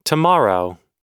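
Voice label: "tomorrow", US English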